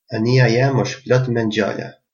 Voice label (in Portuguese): hovercraft, albanes